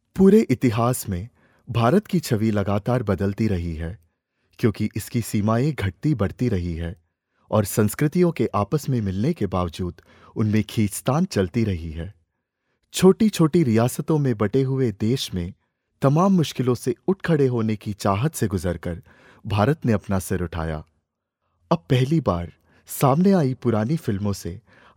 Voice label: male hindi voice over talent narrative